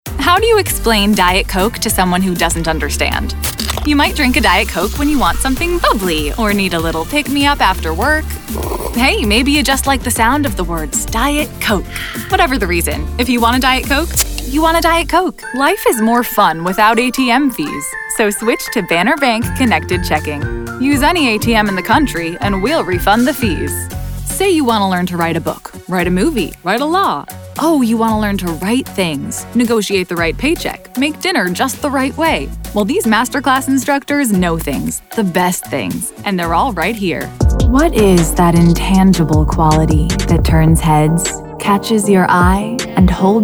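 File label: voice over commercial; global voice acting academy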